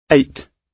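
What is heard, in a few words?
"eight", British English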